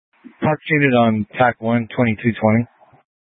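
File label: Incident Tactical 1, Scanner audio recording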